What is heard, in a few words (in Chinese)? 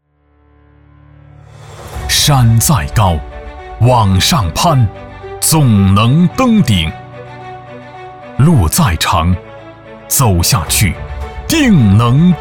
男 银行 激情 有力; 银行宣传样音; 农信